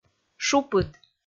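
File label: "шупыд", Komi, female; kpv audio dict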